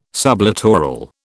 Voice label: "sublittoral", British

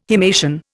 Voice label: "himation", Indian

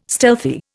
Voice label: "stealthy", Indian

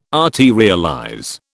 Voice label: "arterialize", British

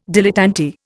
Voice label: "dilettante", Indian

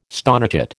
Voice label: "stonechat", American

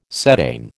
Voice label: "cetane", American

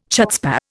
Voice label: "chutzpah", Indian

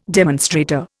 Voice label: "demonstrator", Indian

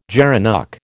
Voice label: "gerenuk", American

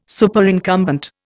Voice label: "Superincumbent", Indian

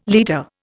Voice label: "liter", Indian

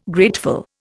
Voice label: "grateful", Indian

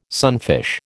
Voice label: "sunfish", American

